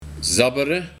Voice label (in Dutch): "Zabbere", de Buiting; Zuigen